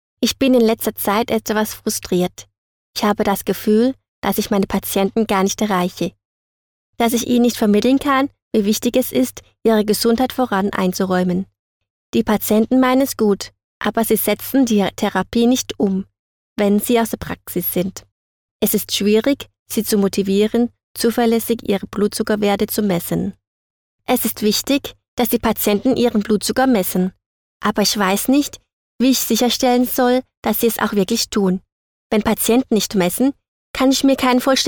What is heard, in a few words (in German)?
Nachvertonung Film Sprecherin Deutsch